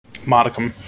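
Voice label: "modicum", US English; pronunciations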